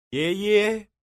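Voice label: "ye ye", Chinese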